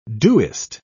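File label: "doest", British English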